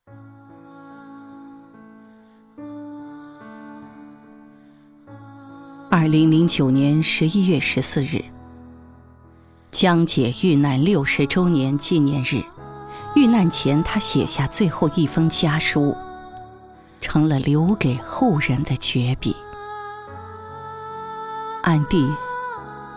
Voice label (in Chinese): 女 红色专题 人物专题; 江姐